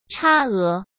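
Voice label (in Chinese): "差额", Mandarin Chinese; hsk; chinese-flashcards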